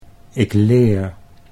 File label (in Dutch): "ik leer", Dutch